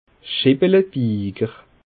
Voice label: "scheppelebiiger", Bas Rhin

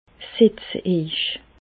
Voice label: setze, Bas Rhin; medecine; asseyez vous

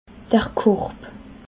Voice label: "korb", alsace

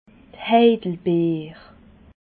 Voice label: "heidelbeer", alsace

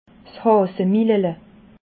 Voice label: "hasemilele", alsace

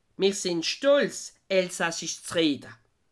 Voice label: "stolz", alsace

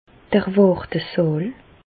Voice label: "wartesaal", Bas Rhin; medecine; salle d'attente

